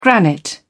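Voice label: "granite", English, British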